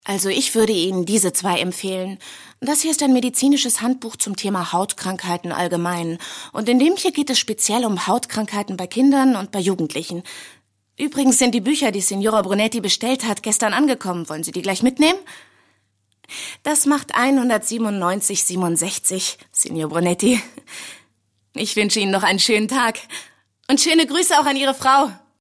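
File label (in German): synchron, sprecher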